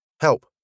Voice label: "help" British

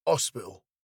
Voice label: "hospital" British

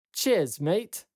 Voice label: "Cheers mate", british pronounce

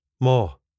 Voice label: "maw", スコットランド英語; お母さん

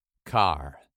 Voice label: car, British English pronunciation

